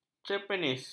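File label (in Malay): "cerpenis", Malay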